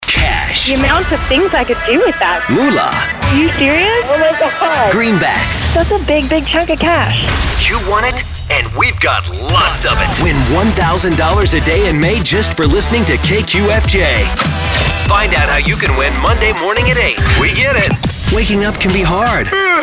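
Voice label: radio imaging men contemporary hit radio, hot adult contemporary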